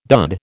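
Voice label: "dud", American English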